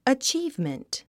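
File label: "achievement", English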